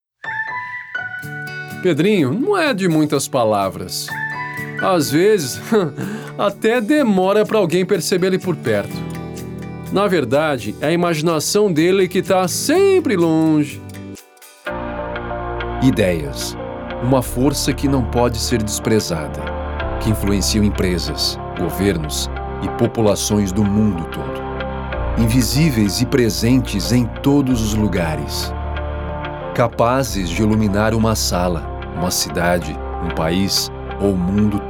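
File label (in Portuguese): Comercial, locutor publicitário; Demo Comercial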